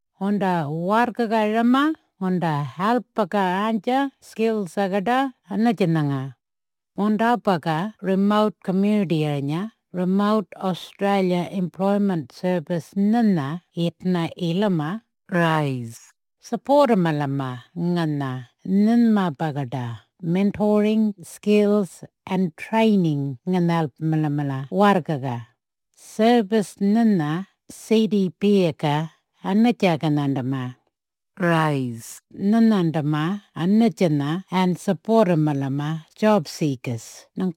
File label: Audio Message Arrernte; RAES